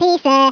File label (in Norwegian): "coward", Norwegian; Speech; 11khz